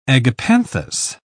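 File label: "agapanthus", British English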